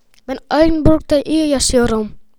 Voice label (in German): Ich wohne gern, tuerkisch; sprachkurs